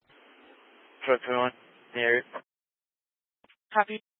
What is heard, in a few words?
Fire Dispatch